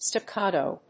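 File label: "staccato", English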